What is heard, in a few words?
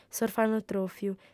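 "s'orfanotròfiu", Sardinian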